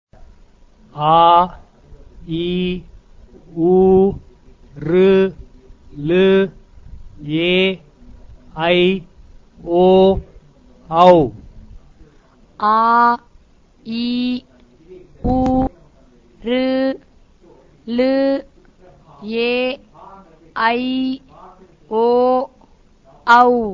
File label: Long vowels, Sanskrit; Learn Sanskrit through self-study; Devanagari Script